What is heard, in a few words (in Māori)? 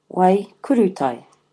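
"wai kurutai", Māori word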